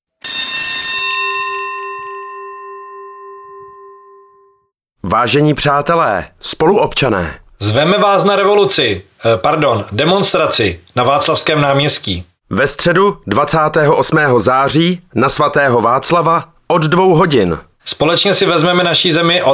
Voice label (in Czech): Hlaseni do auta se zvonkem